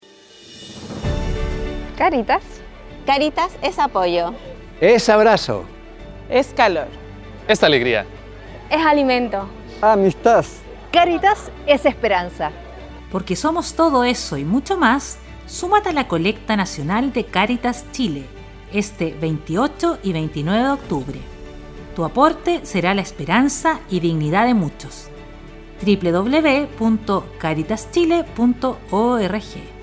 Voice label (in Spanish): spot; caritas; RADIAL; colecta nacional 2022